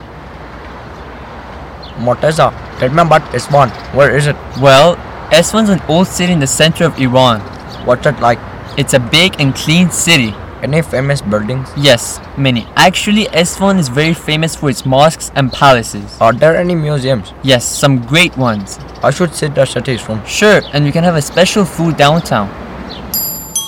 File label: conversation